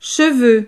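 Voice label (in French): "cheveux", French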